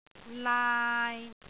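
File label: "laai3", Cantonese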